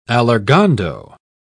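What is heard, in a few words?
"allargando", English, British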